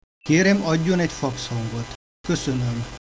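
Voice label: Fax Tone Hungarian; Real-time fax tone request; Let's say you want to send a fax to someone who speaks only Hungarian/Romanian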